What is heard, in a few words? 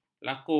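"lakur", Malay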